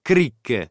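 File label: "cric", Italian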